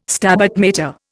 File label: "Stabat Mater", Indian